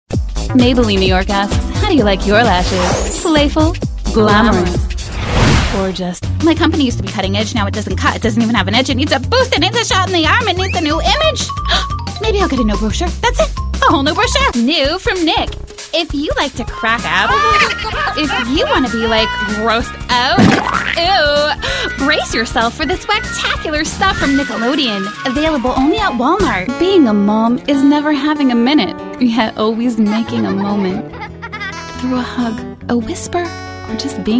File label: Commercial Showreel